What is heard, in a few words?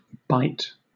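"bight", British English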